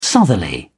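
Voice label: "southerly", English, British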